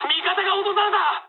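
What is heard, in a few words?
Japanese aircraft destroyed mood high; War Thunder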